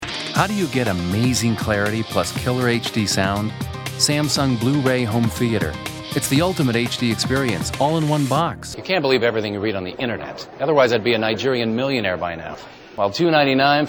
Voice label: commercial, men